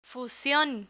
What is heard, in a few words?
"fusion", Spanish